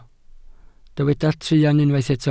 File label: "truan", Welsh